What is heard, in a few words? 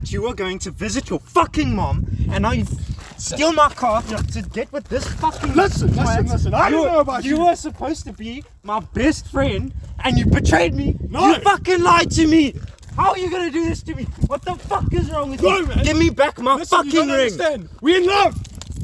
People Arguing